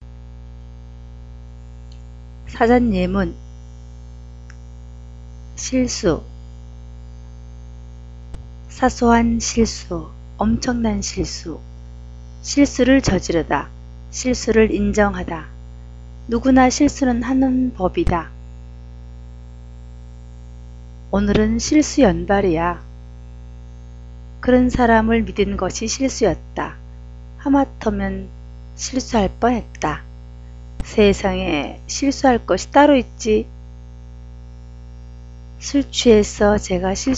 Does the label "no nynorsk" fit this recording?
No